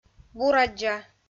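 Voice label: "бураддза", Komi-Zyrian, female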